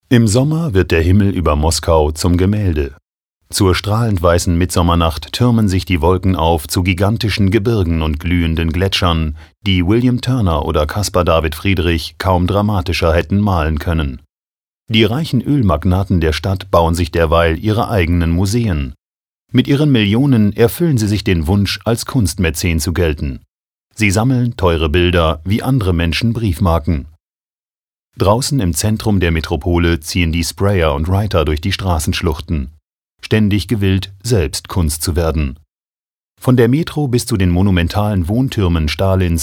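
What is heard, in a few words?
commentary, neutral; Kommentar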